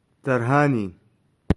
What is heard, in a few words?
"Tarhanin", Touareg; Il mio amore